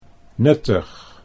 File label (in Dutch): "nuttig", Dutch